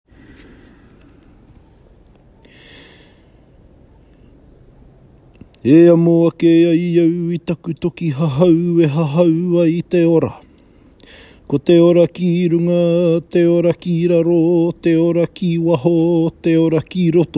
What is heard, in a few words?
karakia reading advanced, Maori; indigenous health; karakia for maori patients; poka hikawai te nahui